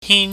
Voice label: "hin", chinese